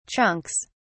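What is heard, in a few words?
"chunks", British English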